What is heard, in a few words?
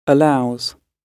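"allows", British English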